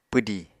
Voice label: "pwdi", apatani